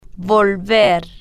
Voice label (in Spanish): "volver", spanish